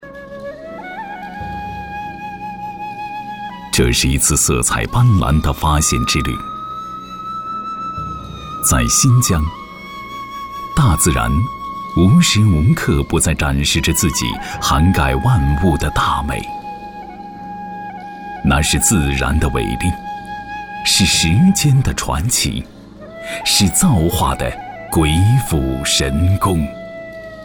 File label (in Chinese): 男 宣传片 深情; 兵团绿色乐